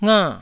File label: "nga4", taiwanese syllables